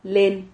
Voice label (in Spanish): "len", Silabas